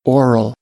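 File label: "oral", American English; AmericanBritish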